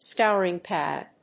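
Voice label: "scouring pad", English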